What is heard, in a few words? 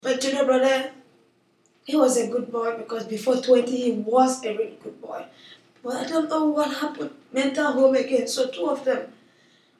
Nigeria Woman; Africa; South Africa